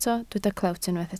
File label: "clewt", Welsh